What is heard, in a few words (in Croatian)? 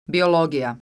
"biologija", croatian